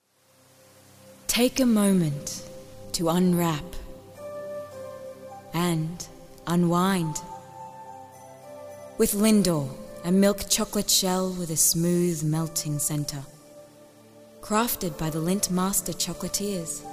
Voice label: Voice Over